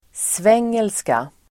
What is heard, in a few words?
"svengelska", Swedish